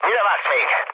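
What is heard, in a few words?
attack position, mood low, Hungarian; speech; War Thunder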